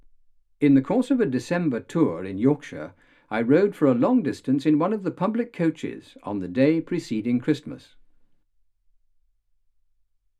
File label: male speech english